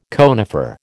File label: "conifer", American